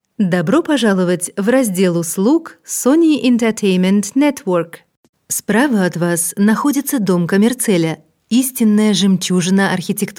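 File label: Russian corporate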